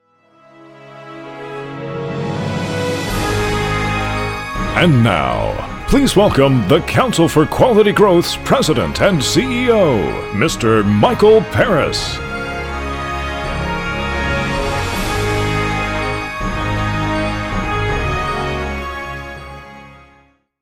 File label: announcement; FPT